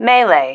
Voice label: "melee", female